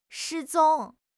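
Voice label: "失踪", Mandarin Chinese; HSK6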